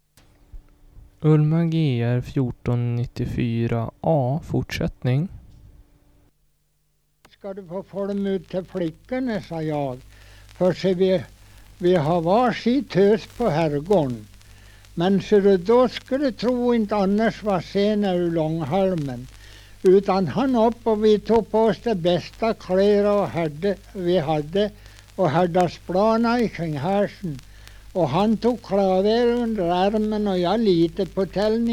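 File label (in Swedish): Huddunge Uppland, dialekter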